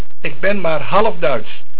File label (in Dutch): "Duits", Dutch